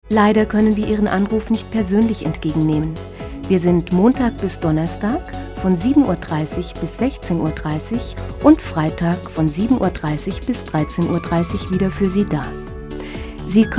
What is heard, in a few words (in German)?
deutsch, Telefonansagen, weiblich; Sprecherin